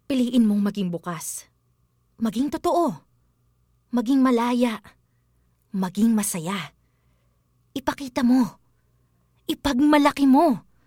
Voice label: Tagalog (Conversational, Inspiring)